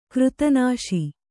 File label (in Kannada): "ಕೃತನಾಶಿ", Kannada